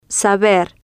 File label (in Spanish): "saber", spanish